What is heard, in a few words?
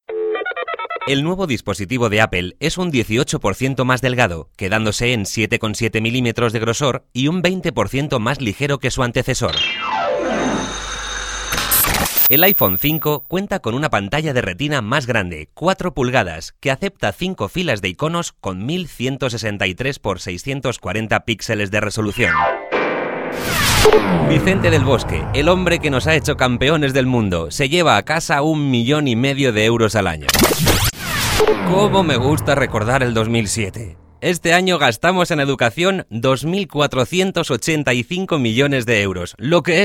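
Spanish, male, commercial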